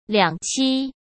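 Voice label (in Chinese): "两栖", Mandarin Chinese; hsk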